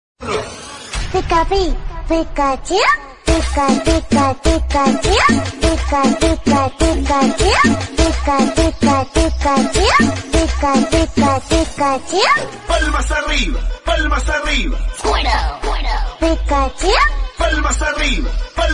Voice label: Pikachu Pikachu, Message Ringtone